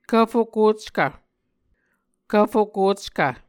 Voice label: "kvfokockv", Muscogee; Mvskoke Words with Voicing Consonants; A Dictionary of Creek/Muskogee; SIL International; Onvkv Ohhvyayices